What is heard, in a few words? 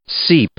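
"seep", English, male; verb; i-ɪ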